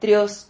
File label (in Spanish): "trios", Silabas